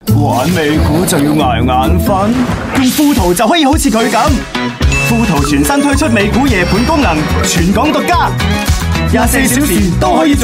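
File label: male Cantonese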